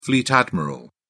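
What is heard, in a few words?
"fleet admiral", British English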